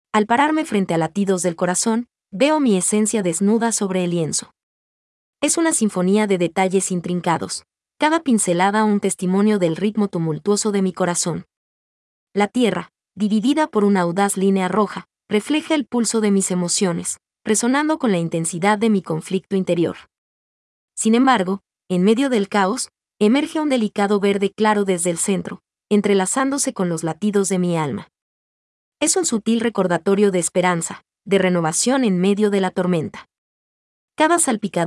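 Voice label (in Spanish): audio descripción en español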